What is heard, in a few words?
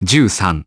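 "ten three", English; iOS 4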